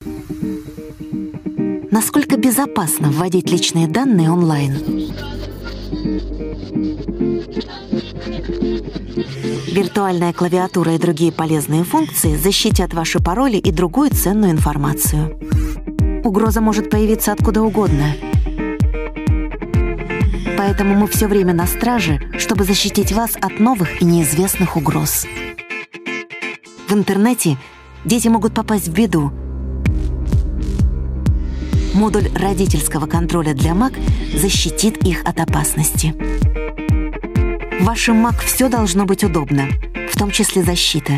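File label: Russian commercial; GoLocalise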